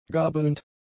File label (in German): "gabelnd", German; adjectives